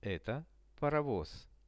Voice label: "eto parovoz", Russian; Это паровоз.; transport; RUSSIAN VISUAL DICTIONARY